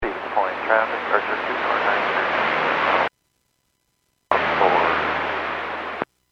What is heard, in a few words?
Airports 122.7, Radio; KSTE